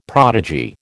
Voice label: "prodigy", American